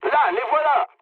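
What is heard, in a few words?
French enemy detected mood low; War Thunder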